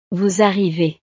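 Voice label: "vous arrivez", French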